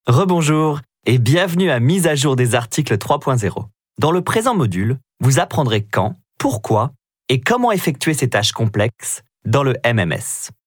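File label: French, narration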